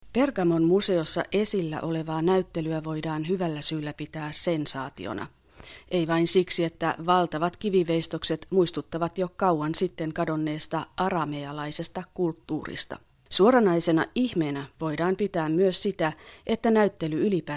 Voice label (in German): finnisch